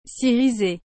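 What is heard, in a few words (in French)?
"s’iriser", French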